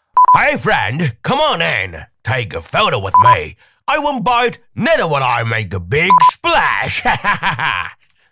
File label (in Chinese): 男 英文角色